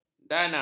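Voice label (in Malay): "dana", Malay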